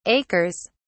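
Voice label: "acres", British English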